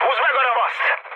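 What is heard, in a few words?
attack position, mood high, Hungarian; speech; War Thunder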